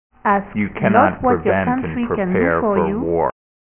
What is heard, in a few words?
example english